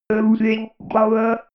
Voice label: robot voice loosing power